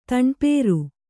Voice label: "ತಣ್ಪೇರು", kannada

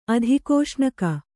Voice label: "ಅಧಿಕೋಷ್ಣಕ", Kannada

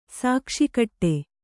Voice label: "ಶಾಕಶಾಕಟ", Kannada

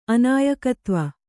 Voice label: "ಅನಾಯಕತ್ವ", Kannada

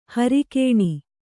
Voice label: "ಹೊರಗಣ", Kannada

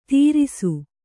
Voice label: "ತೀರಿಸು", Kannada

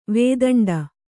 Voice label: "ವೇತಂಡ", kannada

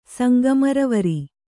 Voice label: "ಸಂಗಮರವರಿ", Kannada

